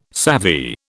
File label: "savvy", British